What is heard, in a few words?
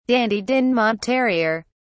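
"dandie dinmont terrier", British English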